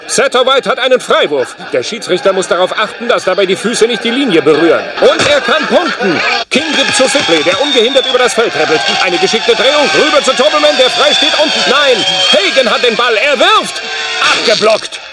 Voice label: dribble narrator